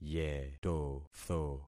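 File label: "yetotho", concatenated syllables; Affixing experiment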